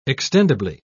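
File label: "extendible", British English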